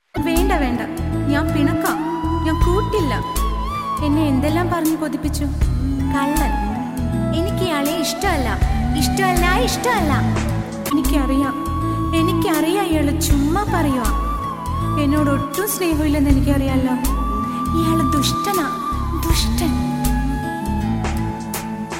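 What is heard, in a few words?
Malayalam Dialogue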